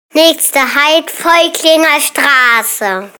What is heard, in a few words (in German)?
vor Voelklinger Strasse, Ansagen Schwebebahn Kinder; schwebebahnkinder erobern die neue bahn